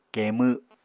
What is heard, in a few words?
"keemw", Apatani